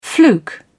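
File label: "fluke", British English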